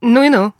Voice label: reaction music, woman; Stalker Anomaly; characters voice human reactions